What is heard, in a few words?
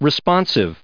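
"responsive", American English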